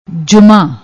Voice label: "jooma", Punjabi